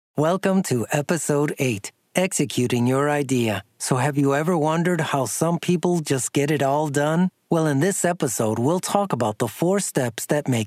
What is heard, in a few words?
e Learning